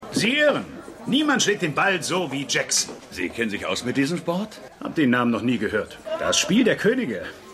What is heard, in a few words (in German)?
Mann